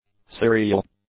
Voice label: "serial", English; adjectives